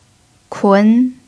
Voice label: "kun2", chinese pinyin